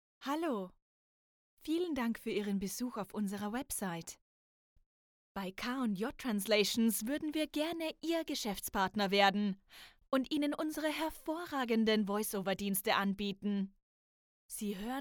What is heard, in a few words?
german female